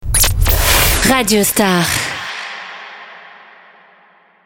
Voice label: ID SWEEP, CHR COURT FEMALE; COMBLAGES RADIO STAR; PLEIN COEUR